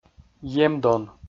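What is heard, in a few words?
"емдон", Komi-Zyrian, male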